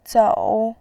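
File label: "zou" Mandarin Chinese, third tone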